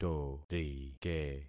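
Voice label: "torike", concatenated syllables; Affixing experiment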